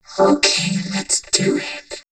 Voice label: Vocoder OK Lets Do It; X-Static Goldmine